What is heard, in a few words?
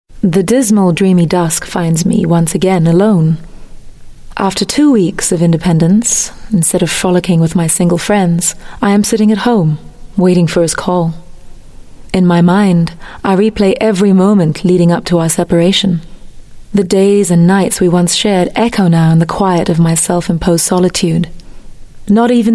Audiobook SG English F; Asian English